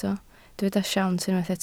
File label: "siawns", Welsh